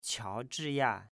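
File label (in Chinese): "Qiaozhiya", Chinese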